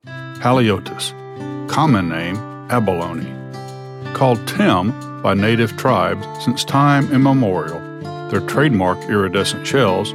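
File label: US English, Documentary; GoLocalise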